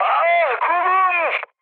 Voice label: damaged pilot, mood high, speech tur; War Thunder

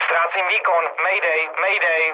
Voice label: damaged engine, speech cze, mood low; War Thunder

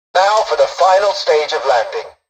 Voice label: tutorial dialogue, english; War Thunder

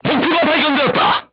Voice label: voice message target lock, crew tanks korean

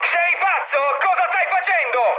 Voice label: player killed ally mood high, speech it; War Thunder

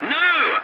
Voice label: voice message no, english; War Thunder

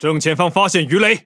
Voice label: Speech Alarms Torpedo Ahead

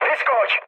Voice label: bailout, speech cze, mood low; War Thunder

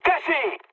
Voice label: bomb failure, mood high, speech; War Thunder

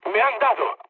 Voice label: aircraft damaged, mood med, speech; War Thunder

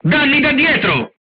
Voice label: shot back, crew tanks italian; War Thunder

